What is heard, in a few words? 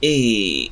close mid front unrounded vowel, phoneme